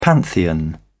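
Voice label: "pantheon", British English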